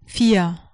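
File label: "vier" German, four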